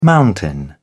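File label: "mountain", British English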